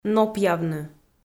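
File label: "нопъявны", Komi, female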